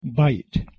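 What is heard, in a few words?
"bite", English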